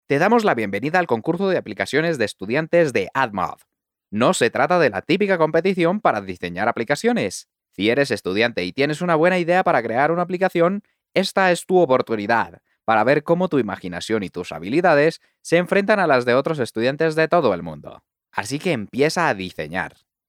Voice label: spanish colombian commercial